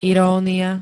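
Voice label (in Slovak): "irónia", Slovenské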